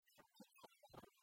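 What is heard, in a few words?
"аттьӧ чолӧмалӧмысь", Komi, female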